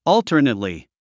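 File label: "alternately", English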